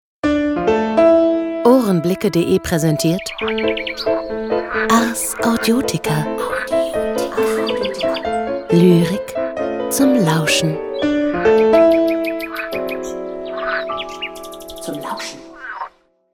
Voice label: jingle; ohrenblicke